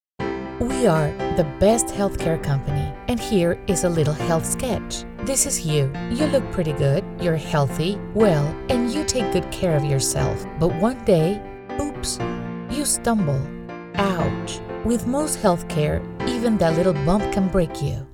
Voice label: Product videos English North American Young adult; Female

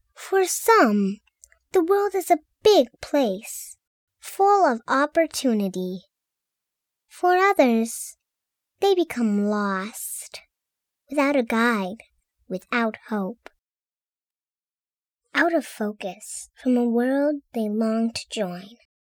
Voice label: trailer; helping inner city kids

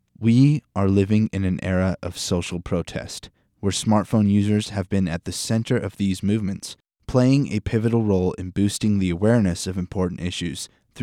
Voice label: Product videos English North American Young adult; Male

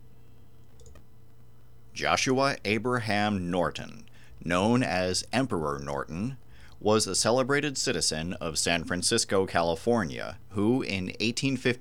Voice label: Presentations Educational English North America M; Young

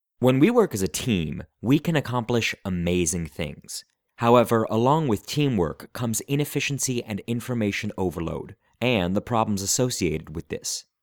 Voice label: explainer video; tiimfocus

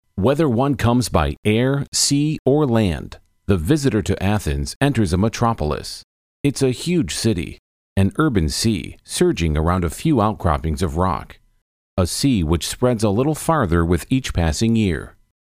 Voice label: Documentaries English North American Middle age M; VoiceBunny; Male

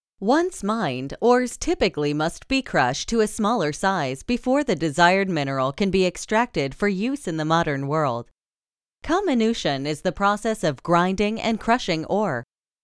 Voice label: infographic mining video narrative, Voice Actor

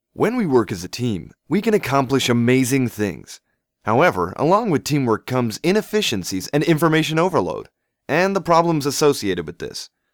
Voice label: explainer video; tiimfocus

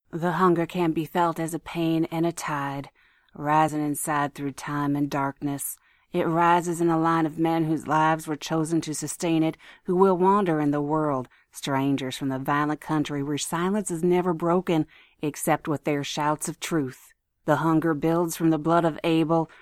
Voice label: Documentaries English North American Middle age F; Female

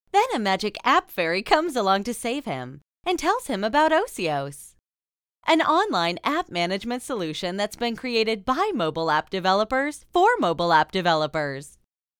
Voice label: landing pg video voice over; osios

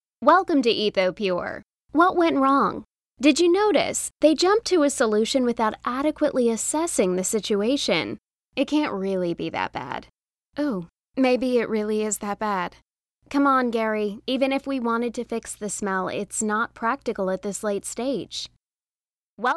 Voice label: female voices; shower cleaner; audition

